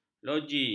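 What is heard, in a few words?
"loji", Malay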